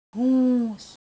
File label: Semai Sound